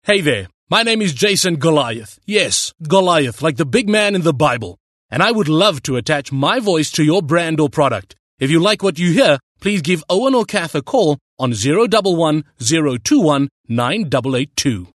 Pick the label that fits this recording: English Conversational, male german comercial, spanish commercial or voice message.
English Conversational